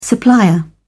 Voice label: "supplier", en uk

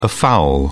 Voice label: "afoul", uk

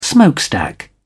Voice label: smokestack, uk

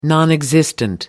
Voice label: "nonexistent", en uk

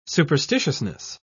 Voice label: "superstitiousness", en uk

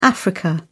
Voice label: "africa", uk

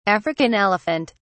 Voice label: "african elephant", uk

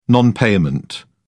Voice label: "non payment", en uk

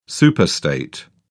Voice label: "superstate", en uk

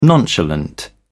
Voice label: "nonchalant", en uk

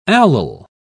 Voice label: allyl, uk

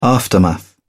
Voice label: "aftermath", uk

